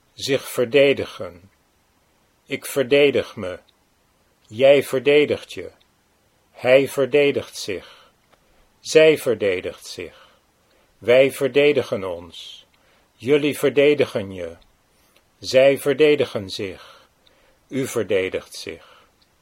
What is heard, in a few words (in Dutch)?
zich verdedigen, Dutch